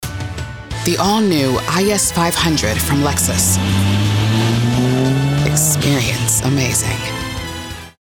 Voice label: Luxurious Sophisticated Alluring Commercial, African American voice; Lexus Commercial Demo